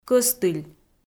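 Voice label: "кӧстыль" Komi, female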